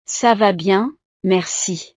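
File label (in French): "ca va bien", French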